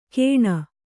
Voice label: "ಕೇಣ", Kannada